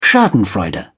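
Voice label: "schadenfreude", British English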